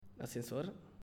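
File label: "Ascensor", Spanish, male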